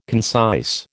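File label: "concise", American English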